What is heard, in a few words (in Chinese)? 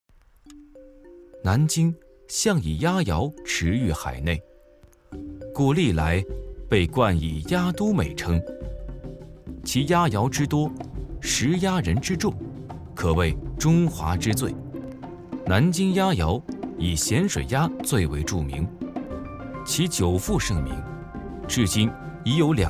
舌尖体 纪录片 盐水鸭